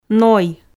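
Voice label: "ной", Komi, female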